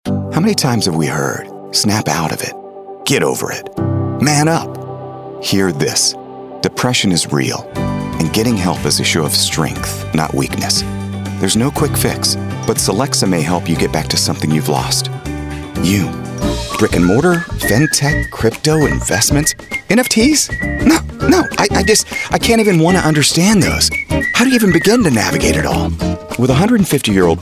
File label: Commercial; TaDa